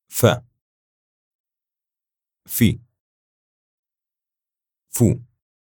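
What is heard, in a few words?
"fa fi fu", Arabic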